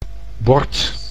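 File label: "bord", Dutch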